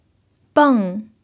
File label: "beng4", pinyin